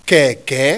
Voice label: "keke", Yoruba; CONSONANTS; kakaki-keke-eko